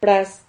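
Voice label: "pras", Spanish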